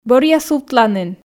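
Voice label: "бӧръя сувтланін", Komi, female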